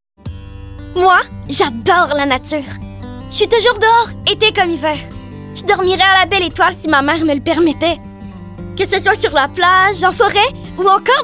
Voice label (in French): pub fictive